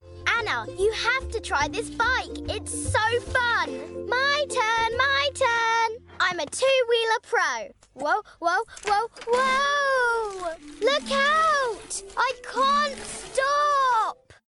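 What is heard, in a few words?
Animation, Child Voice; Nickolodeon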